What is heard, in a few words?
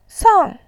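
"sang", Mandarin Chinese, fourth tone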